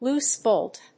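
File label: "Loose bolt", English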